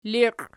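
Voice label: "liqi" nominative, Archi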